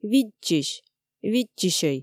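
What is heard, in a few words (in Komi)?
видзчысь(ӧй), Komi, female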